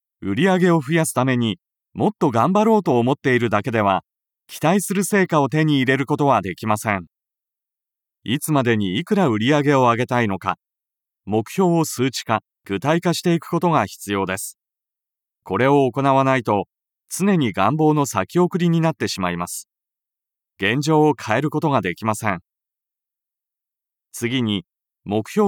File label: Narration